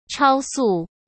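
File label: "超速", Mandarin Chinese; chinese-flashcards; hsk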